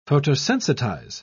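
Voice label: "photosensitize", British English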